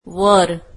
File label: marathi above